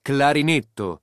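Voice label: "clarinetto", Italian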